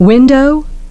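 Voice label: "window", English pronunciation; ˡwɪn.dəʊ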